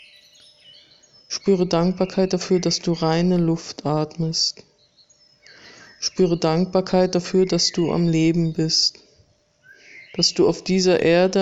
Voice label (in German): meditation